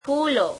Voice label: gujarati, flowers